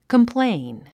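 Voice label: "complain", English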